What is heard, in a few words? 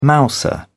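"mouser", British English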